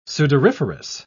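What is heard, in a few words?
"sudoriferous", British English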